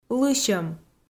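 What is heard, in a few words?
"лысьӧм" Komi, female; kpv audio dict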